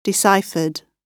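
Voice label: "deciphered", British English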